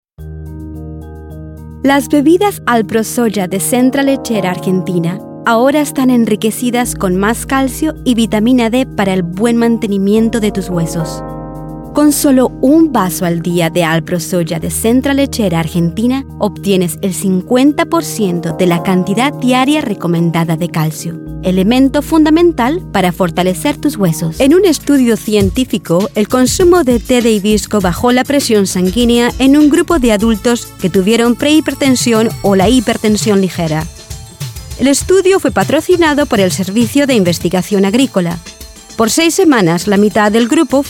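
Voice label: Latin American Spanish, General; GoLocalise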